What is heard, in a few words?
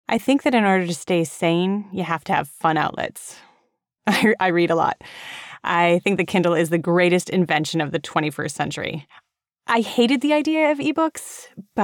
US character